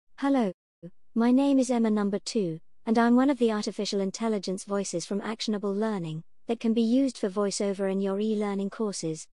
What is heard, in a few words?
Female Voice-over; animation